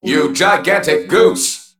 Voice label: Male Mech Attack